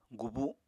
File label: "gubu", Apatani